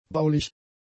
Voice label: "baulich", German; adjectives

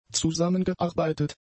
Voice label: "zusammengearbeitet", German; adjectives

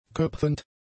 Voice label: "köpfend", German; adjectives

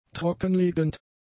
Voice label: "trockenlegend", German; adjectives

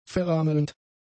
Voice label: "verrammelnd", german; adjectives

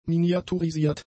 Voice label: "miniaturisiert", German; adjectives

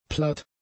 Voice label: "platt", German; adjectives

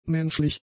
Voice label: "menschlich", German; adjectives

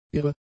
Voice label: "irre", german; adjectives

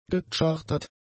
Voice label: "gechartert", German; adjectives

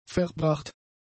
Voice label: "verbracht", German; adjectives

